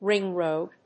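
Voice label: "ring road", English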